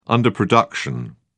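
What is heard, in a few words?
"underproduction", English, British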